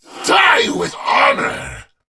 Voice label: Attack, en; Warframe; Battle; Dax; DaxKnight

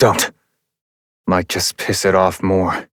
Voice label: Adult Op, en; New War; Ascend Tower

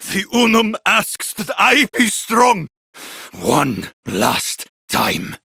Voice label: Villagers Ostron Male Older en

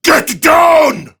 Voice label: Prime Grineer Male; Battle Barks; en; Tau

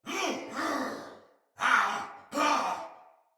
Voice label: Soldier Male; Barks Battle; Sinecure Soldier Male B

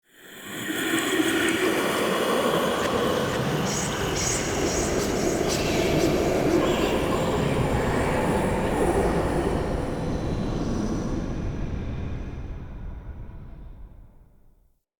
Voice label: Spirit Talk, Ambience, Crypt; Champion Crypt

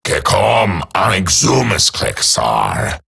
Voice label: Patrol Eximus Grineer Male; Battle Barks

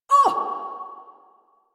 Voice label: Pain, en; Warframe; Corpus Lich; Persona B

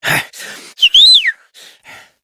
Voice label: Horse Stop Adult; Duviri

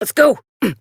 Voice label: Horse Sprint Adult; Duviri

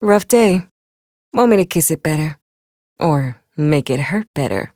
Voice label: Ambient Barks, en; Warframe; Mall Romance; Lettie

